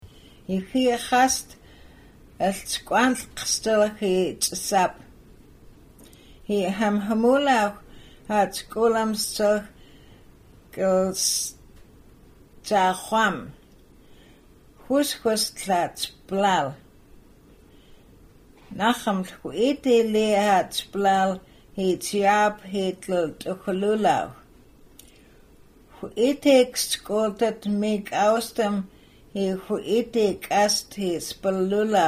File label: Nsyilxcen; Osoyoos Oxbows